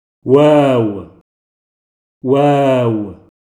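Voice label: Wāw, Arabic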